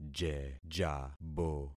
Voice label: "jejabo", concat syllables; Affixing experiment